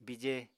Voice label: "bije", Apatani

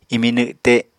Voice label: "imi nwte", Apatani

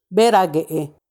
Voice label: "beraguee", turkey (female), macuiltianguis

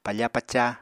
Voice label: "pafa-paca", Apatani

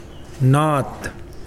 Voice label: Mokilese north

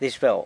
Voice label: "dizh beu", San Lucas Quiavini; cheers

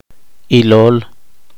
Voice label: poqomchi; comadrona

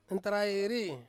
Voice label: "nentarai ri", Ansus

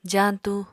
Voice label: "jaañtu", Apatani

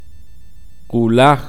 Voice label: "cuello", K'iche'

